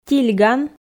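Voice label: "тильган", Komi, female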